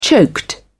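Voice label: "choked", British English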